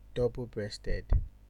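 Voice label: "double breasted", Nigerian Pidgin, male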